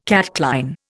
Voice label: "catchline", Indian